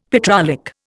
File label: "petrolic", Indian; Dictionary